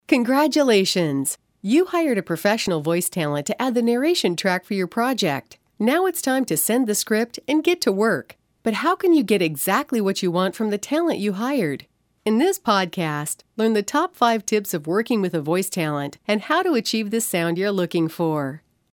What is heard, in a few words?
Podcast